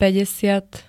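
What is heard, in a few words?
"päťdesiat", Slovak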